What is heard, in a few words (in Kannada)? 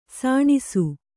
"ಸಣ್ಣಿಸು", Kannada